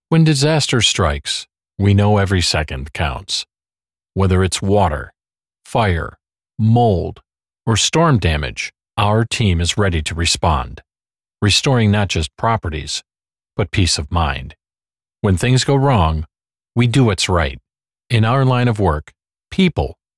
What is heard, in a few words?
Phone Hold Message